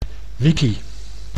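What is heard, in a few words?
"wiki", Dutch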